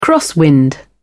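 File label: "crosswind", British English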